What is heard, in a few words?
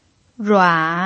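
"rua" Mandarin Chinese, third tone, pinyin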